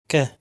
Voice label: "Che", Italian